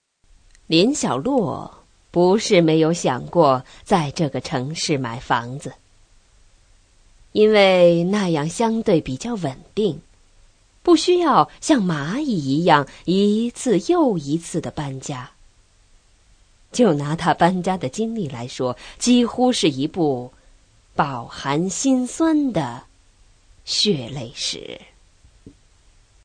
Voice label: story

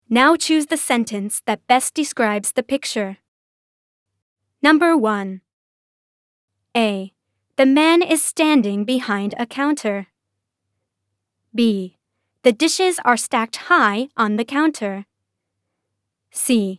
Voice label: edu

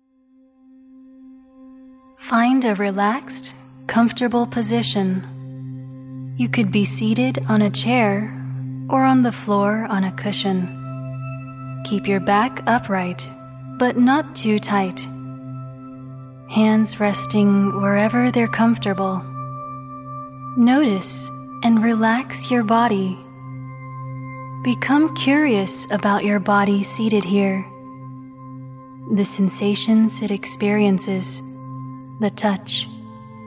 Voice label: meditation guidance

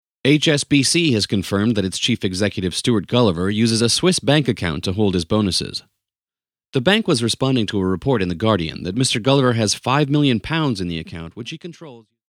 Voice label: news

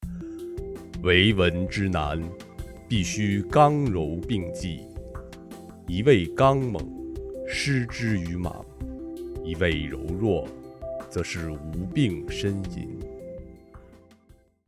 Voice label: recitation

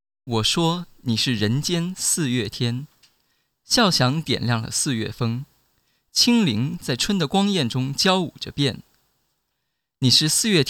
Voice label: story

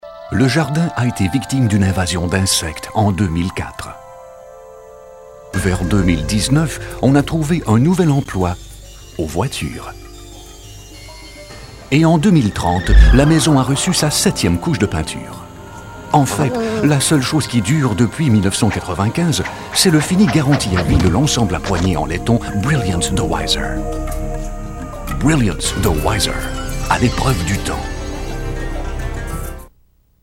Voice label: french

